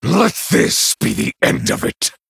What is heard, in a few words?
Male Night Elf Well Played